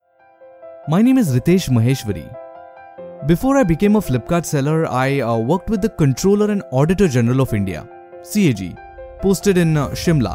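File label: English Commercial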